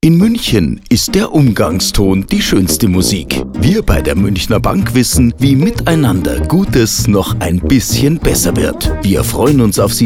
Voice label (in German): radiospot